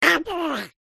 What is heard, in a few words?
cartoon duck says oh boy; zapsplat